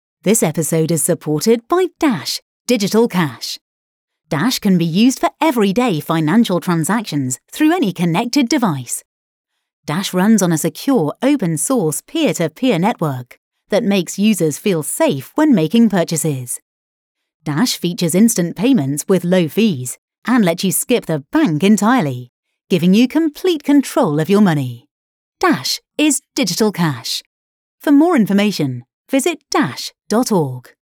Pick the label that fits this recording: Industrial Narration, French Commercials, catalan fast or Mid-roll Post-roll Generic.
Mid-roll Post-roll Generic